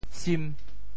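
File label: "sim5", Teochew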